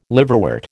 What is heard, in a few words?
"liverwort", American